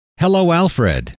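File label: hello, male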